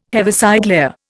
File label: "Heaviside layer", Indian